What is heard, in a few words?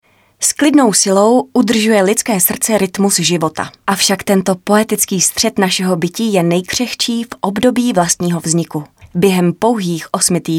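Female Czech documentary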